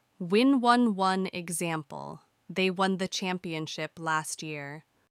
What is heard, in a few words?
"win", verbes irreguliers en anglais